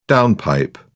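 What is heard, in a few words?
"downpipe", British English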